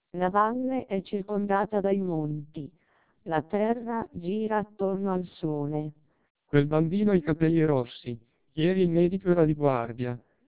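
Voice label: speech, Italian, 600 bps TWELP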